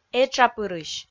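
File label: "этшапырысь", Komi, female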